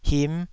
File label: "him5", Taiwanese syllable